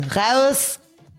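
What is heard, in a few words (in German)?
"raus", German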